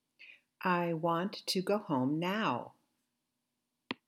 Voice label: Slow Example, accent american